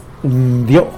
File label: "ndyo", tlacolula; fat